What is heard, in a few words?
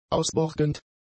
"ausborgend", German; adjectives